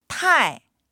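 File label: "tai4", Mandarin Chinese; 太; あまりに～すぎる、きわめて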